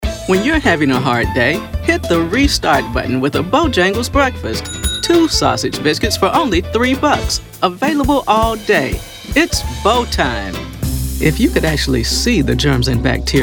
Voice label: Commercial